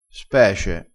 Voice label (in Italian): "spece", crespinese